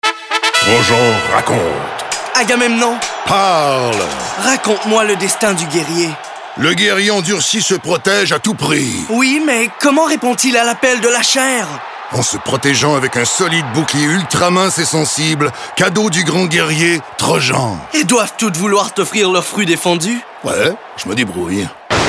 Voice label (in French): publicite